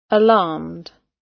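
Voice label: "alarmed", British English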